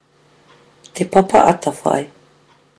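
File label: "te papa atawhai", Māori; people and kea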